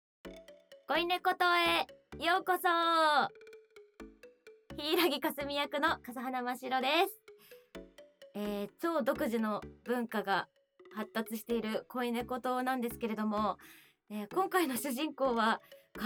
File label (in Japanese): フリートーク; 精液決済にしますか?